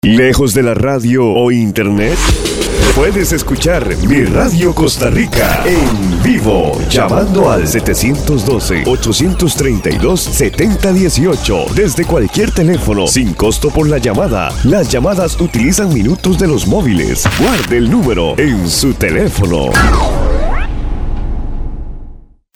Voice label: promo telefonos internacionales

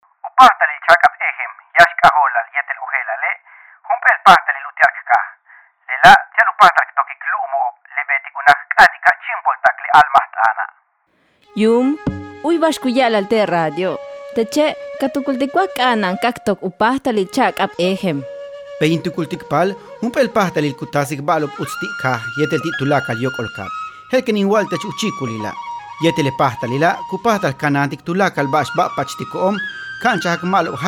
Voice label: mayayucateco spot; responsabilidad

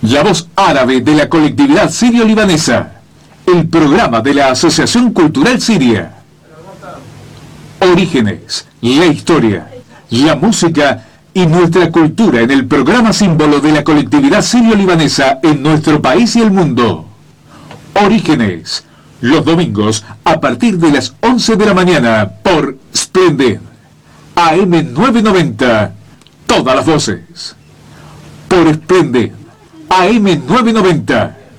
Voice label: informativo; splendid am990

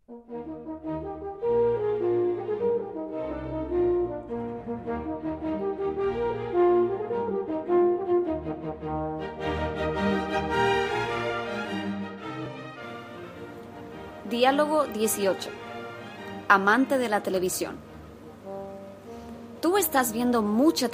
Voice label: dialogo english conversations

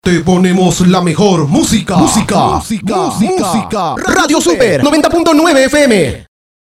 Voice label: cuña; te ponemos la mejor musica

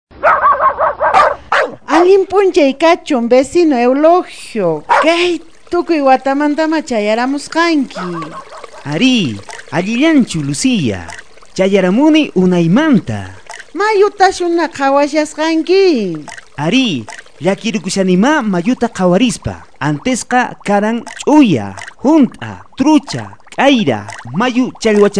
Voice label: mensaje quechua; rio ima

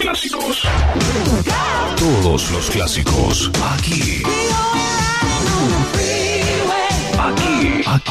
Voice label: demo retro radio classic voz en neutro

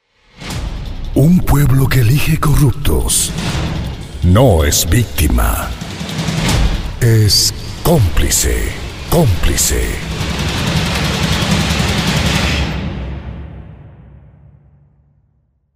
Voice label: spot trailer; corruptos

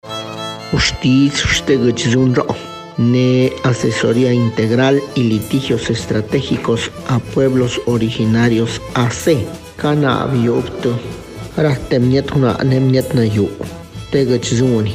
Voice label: spot taller; tlacochahuaya zap

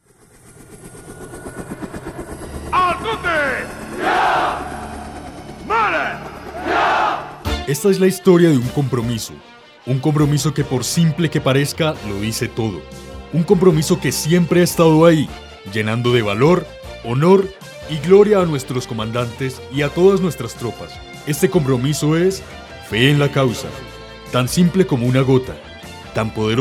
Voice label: cuña formal

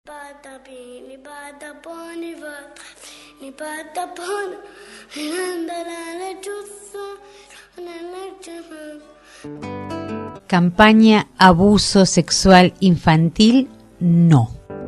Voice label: spots

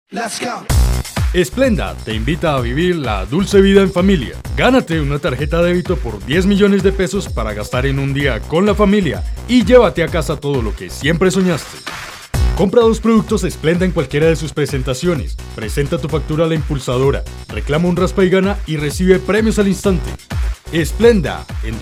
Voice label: cuña informal

